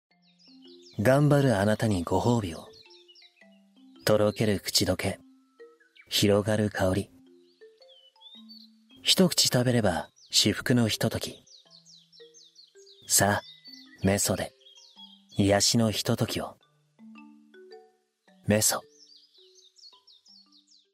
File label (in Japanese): 食品ナレーション